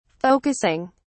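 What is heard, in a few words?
"focusing", British English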